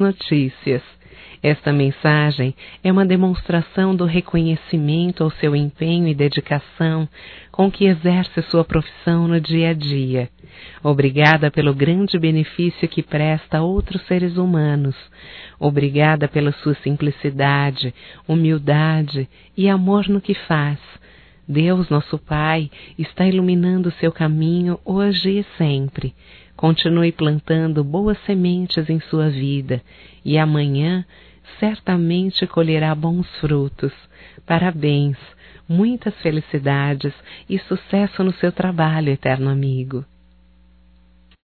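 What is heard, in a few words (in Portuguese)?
HOMENAGEM CARTEIRO (F), Só Voz; Telemensagens; HOMENAGENS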